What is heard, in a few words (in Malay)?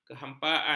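"kehampaan", Malay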